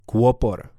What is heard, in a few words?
"kopor", slovencina